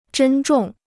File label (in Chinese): "珍重", Chinese